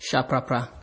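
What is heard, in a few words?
"shaprapra", Nigerian Pidgin, male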